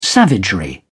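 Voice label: "savagery", English, British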